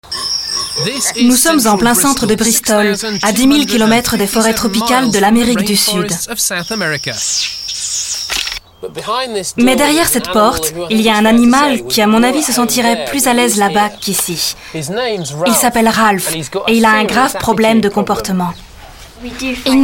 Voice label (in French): Voice over Iguane